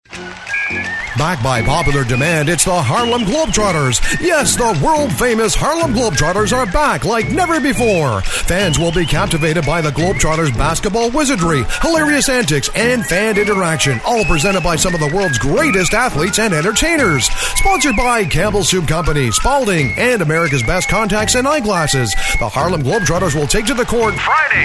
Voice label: PSA; Globe Trotters